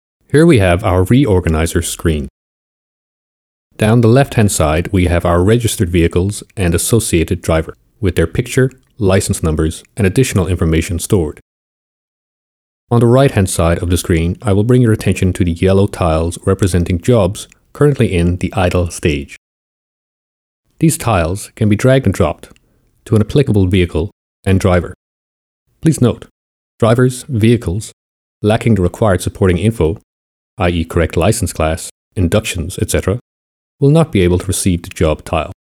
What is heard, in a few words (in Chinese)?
外籍团队 男声